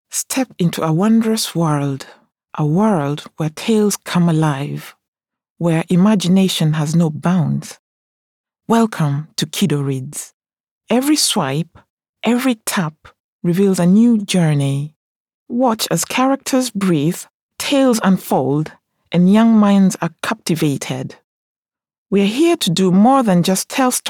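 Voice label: English, African, female, commercial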